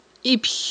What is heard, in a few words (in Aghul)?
"ибхь", aghul